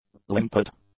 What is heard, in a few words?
"limpet", English; nouns